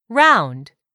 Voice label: "ROUND", english; 3000 words